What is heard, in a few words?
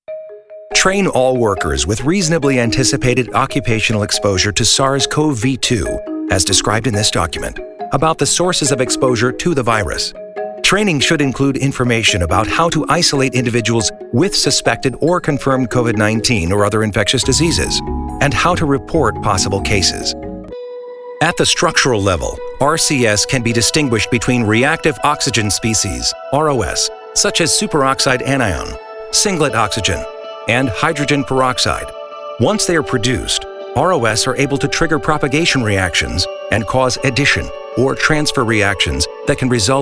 E-Learning Medical